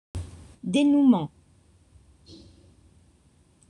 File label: "denouement", French